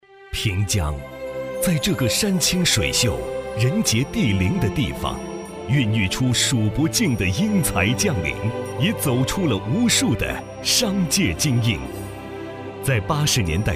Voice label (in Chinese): 男国 专题 企业 沉稳; 粤港澳平江商会